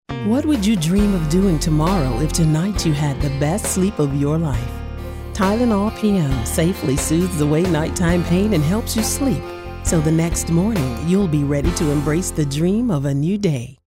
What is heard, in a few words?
Caring concerned